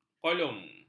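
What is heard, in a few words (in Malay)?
"kolum", Malay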